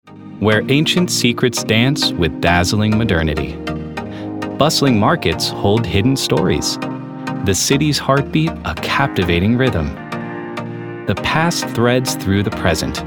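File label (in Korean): 남성 (Male) 나레이션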